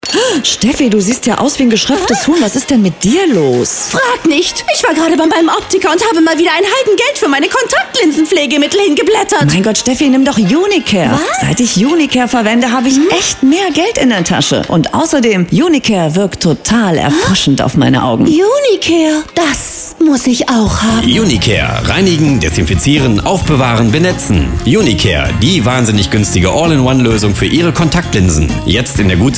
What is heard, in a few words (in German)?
Beauty Radiowerbung